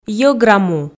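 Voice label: "йӧгра му", Komi, female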